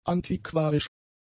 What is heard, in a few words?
"antiquarisch", German; adjectives